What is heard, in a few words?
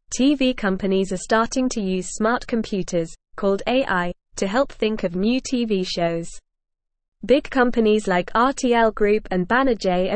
English NORMAL Reading; TV companies try using smart computers to make shows